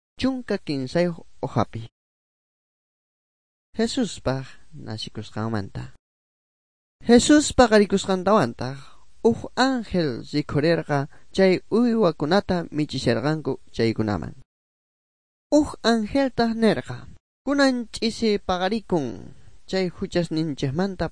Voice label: good news quechua bolivian sucre; bible